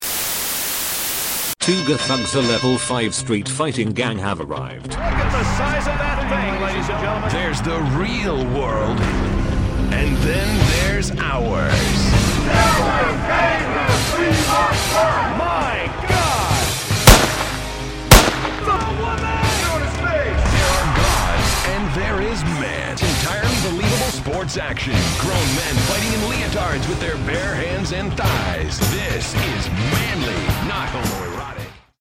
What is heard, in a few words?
Commercial